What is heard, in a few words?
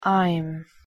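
"im", English pronunciation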